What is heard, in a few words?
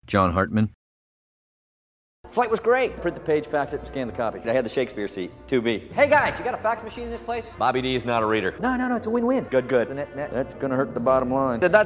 commercial; Innovative LA